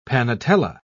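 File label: "panetella", British English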